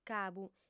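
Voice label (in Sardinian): "cabu", female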